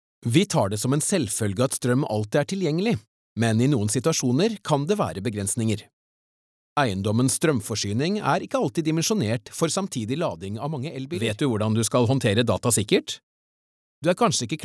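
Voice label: Norwegian, male, e-learning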